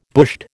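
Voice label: "bushed", American English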